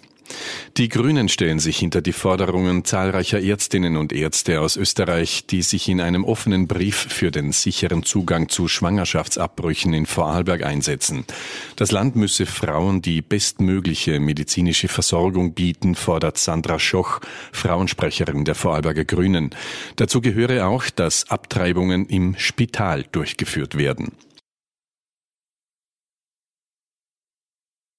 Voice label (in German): regional radio meldungen; oe2; radio vorarlberg; 30 meldungen